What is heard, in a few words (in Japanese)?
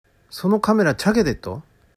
「ちゃげでる」, 旭弁 銚子弁; 壊れる; 壊れた; 「そのカメラちゃげでっと」; （訳）そのカメラ壊れてるよ